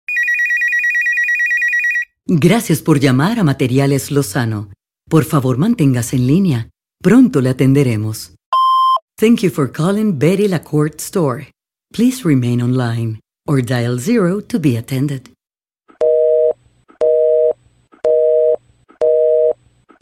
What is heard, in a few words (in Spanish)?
ivr español english